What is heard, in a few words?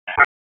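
Police Southwest District Tactical 1, p25; Raleigh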